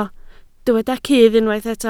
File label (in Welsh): "cudd", Welsh